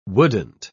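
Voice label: "wouldn't", British English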